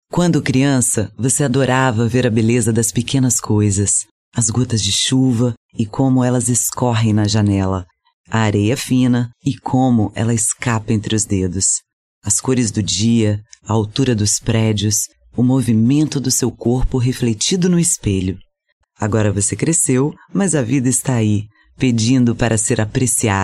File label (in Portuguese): SUAVE PADRÃO